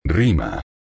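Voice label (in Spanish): "rima", español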